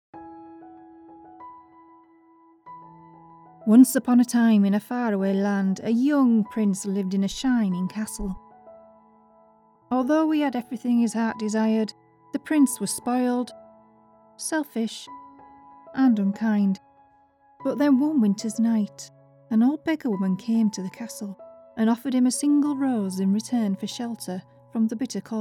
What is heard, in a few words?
Beauty Narration Animation Northern Accent